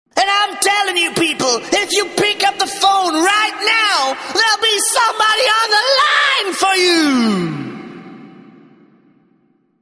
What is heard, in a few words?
Funny Priest